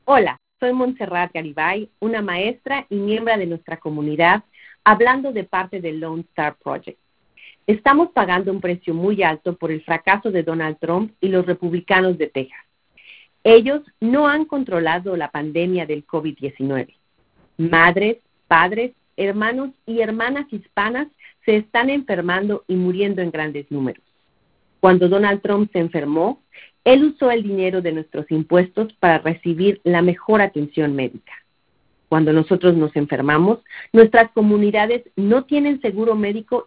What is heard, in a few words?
Hispanic Spanish